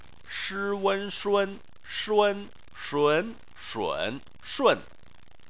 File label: "shun", chinese